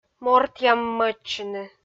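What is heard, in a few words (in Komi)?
"мортъяммӧдчыны", Komi, female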